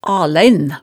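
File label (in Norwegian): "a-læin", Hemsedal dialect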